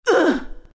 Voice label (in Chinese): pain, zh; Legcom; Dota 2